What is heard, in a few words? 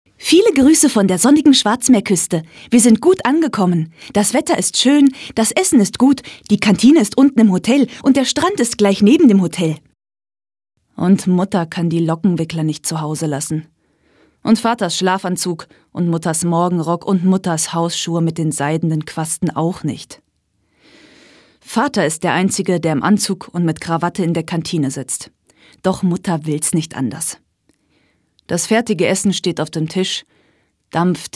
audio book german sarcastic